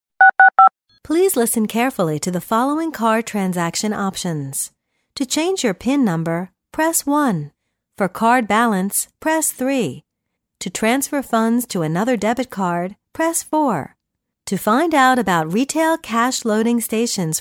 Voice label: americ, woman, foreign